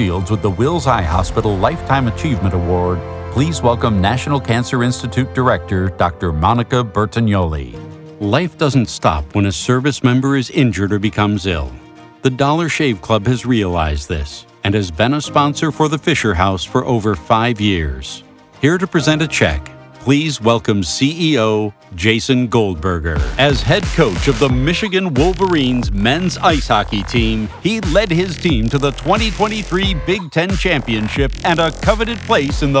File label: Live Announce